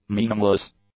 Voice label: "meaningless", English; adjectives

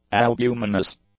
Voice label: "albuminous", English; adjectives

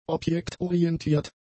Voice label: "objektorientiert", German; adjectives

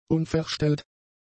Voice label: "unverstellt", German; adjectives

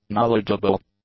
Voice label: "knowledgeable", english; adjectives

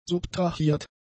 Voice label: "subtrahiert", German; adjectives

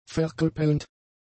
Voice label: "verkrüppelnd", German; adjectives

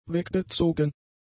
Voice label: "weggezogen", German; adjectives

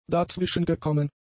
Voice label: "dazwischengekommen", German; adjectives

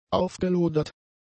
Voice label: "aufgelodert", German; adjectives

